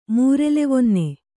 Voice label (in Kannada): "ಮೂರೆಲೆವೊನ್ನೆ", kannada